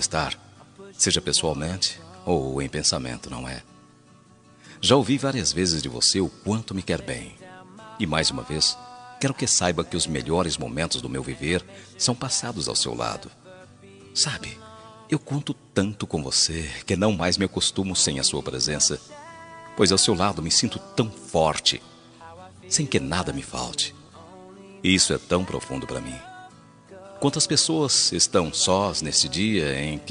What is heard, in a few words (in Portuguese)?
namorado masc, voz masculina; Dia dos Namorados - Para Namorada - Voz Masculina